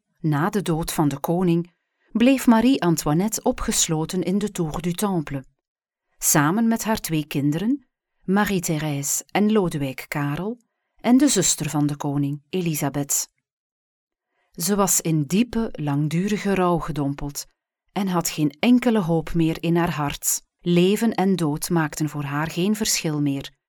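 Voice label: Flemish, female; voice over talent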